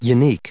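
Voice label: "unique", US English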